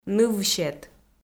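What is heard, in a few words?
"нывсет", Komi, female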